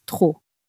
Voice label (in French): "trop", French